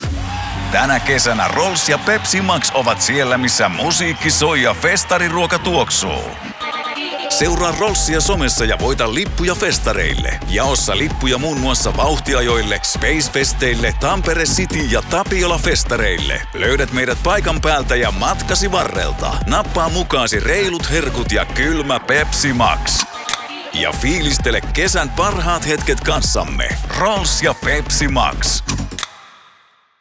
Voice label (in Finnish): Mainos